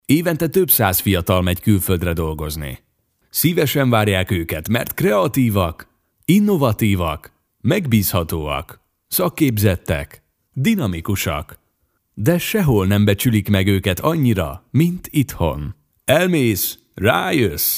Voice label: Hungarian